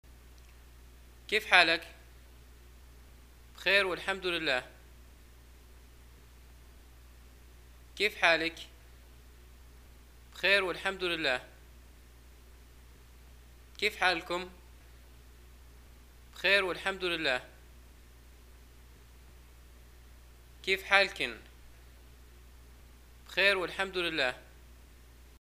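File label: greeting how are you, Libyan arabic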